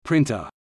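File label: "printer", English word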